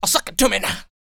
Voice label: male spoken; R&B Dance